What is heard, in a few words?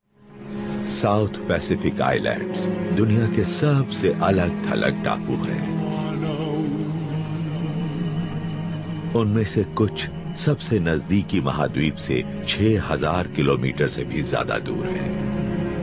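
Hindi Narrative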